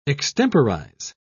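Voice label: "extemporise", British English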